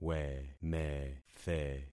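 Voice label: "wemethe", concatenated syllables; Affixing experiment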